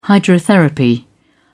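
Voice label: "hydrotherapy", British English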